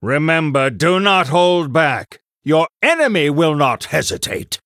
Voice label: Male Human Shop To Combat

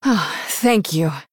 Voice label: Female Human Thanks

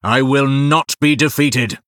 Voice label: Male Blood Elf TRIGGER Toxic Arrow

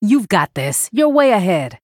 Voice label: Female Human First Place

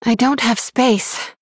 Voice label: Female Human Error Full Minions; Jaina

